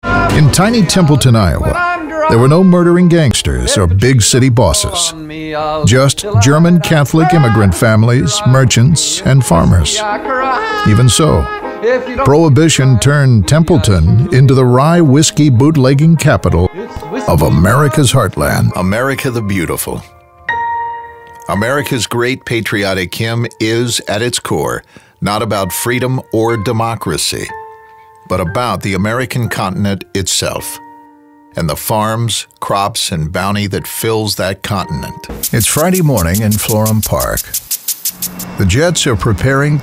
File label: narration